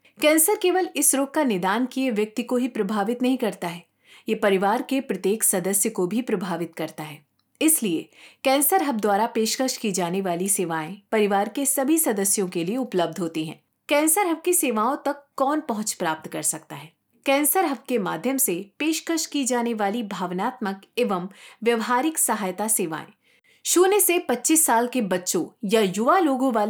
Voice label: Hindi; Who can access Cancer Hub services